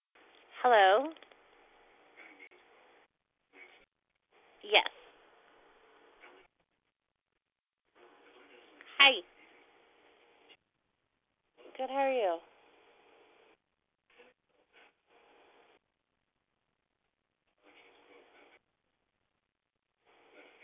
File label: Cold Call Hung Up